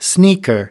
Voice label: "sneaker", us

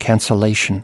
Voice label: "cancellation", us

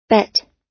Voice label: "but" US

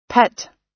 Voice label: "pet", us

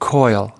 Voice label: "coil", us

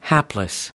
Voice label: "hapless", us

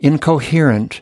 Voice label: "incoherent", us

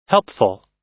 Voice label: "helpful", us

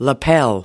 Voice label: "lapel", us

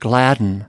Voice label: "gladden", us

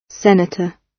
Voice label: "senator", gb

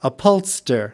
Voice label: "upholster", us

